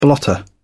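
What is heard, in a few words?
"blotter", British English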